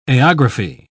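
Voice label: "aerography", British English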